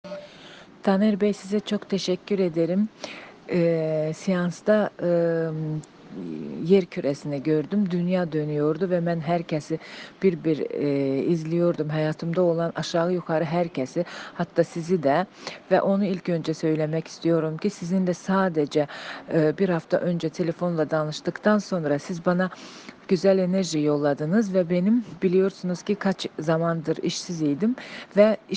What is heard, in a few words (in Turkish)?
sesli mesaj